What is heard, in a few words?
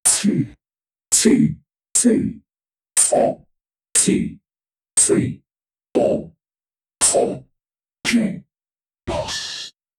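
a dramatic countdown voice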